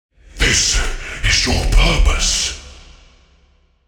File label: Midfight Mono; Malachai Combat